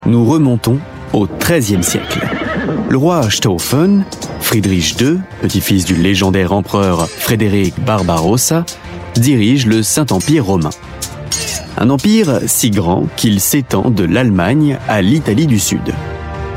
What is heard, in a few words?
french voice over male documentary